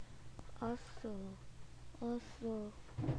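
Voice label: "also", spoken word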